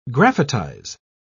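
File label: "graphitize", British English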